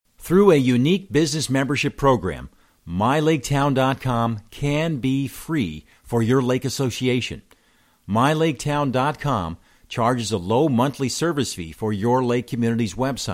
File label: Ad; LakeAssn